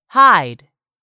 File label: "HIDE", english; 3000 words